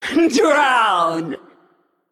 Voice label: Female Naga Trigger